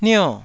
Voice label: "nio4", taiwanese